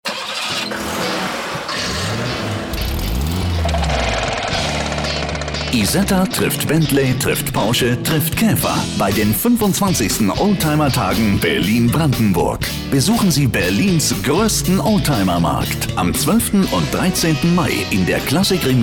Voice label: TV Commercials, German voice-over, calming voice; Sincere and Cheerful Old Timer Day 2012

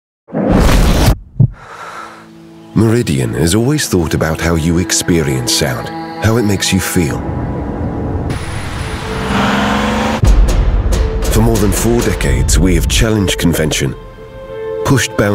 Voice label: Male 35 45 years Medium Low

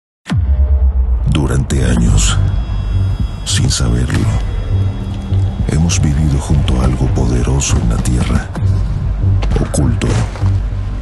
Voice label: Male 35 45 years Low, spanish south america

